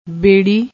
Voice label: "berri", Punjabi